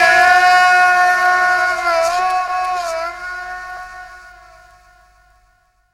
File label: Tear Vox; Jungle; Vocals; Blu Mar Ten - Jungle Jungle - 1989 to 1999 Samplepack